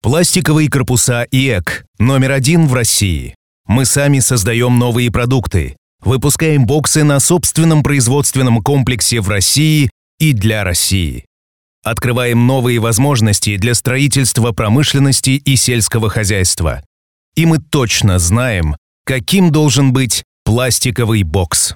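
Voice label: informational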